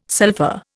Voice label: "sulpha", Indian